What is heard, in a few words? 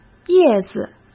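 "叶子", Mandarin Chinese; hsk; chinese-flashcards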